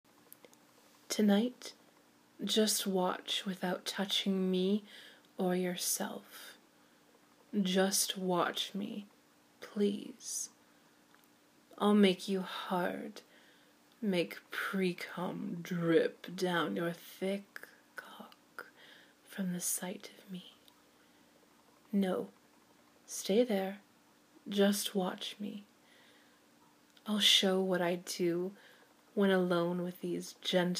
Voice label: erotic poetry, Canadian Accent, dirty talk; F4M; Just Watch